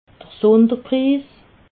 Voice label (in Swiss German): "sunderpris", alsace